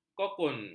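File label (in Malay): "kokun", Malay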